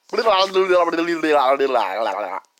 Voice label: Gibberish